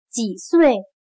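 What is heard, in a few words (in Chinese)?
"几岁" Mandarin Chinese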